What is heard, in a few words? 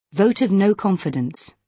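"vote of no confidence", British English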